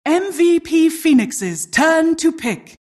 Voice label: announcer team pick